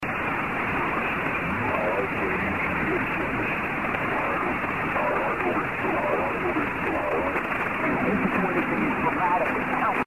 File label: service id, shortwave pirate radio; High Plains Relay; Gumby Radio; noise Reduction; Canklecats Shortwave Pirate Radio Collection